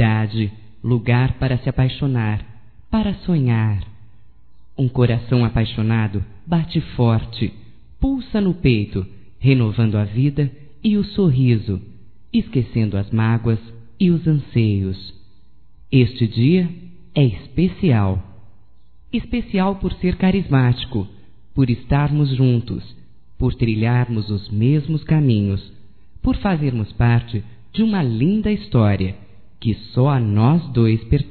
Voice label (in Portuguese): AMOR(F), Telemensagens, fem; Amizades; Telemensagens 2017